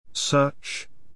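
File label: "search", English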